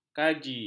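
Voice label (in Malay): "kaji", Malay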